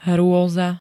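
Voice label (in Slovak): "hrôza", Slovak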